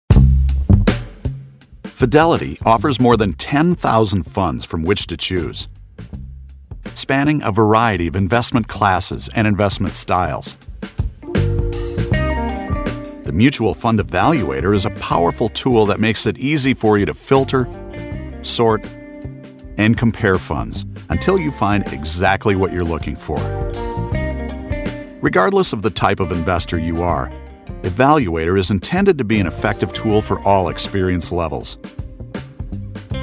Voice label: E learning